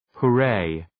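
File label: "hooray", English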